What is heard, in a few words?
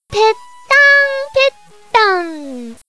chat